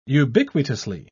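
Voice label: "ubiquitously", British English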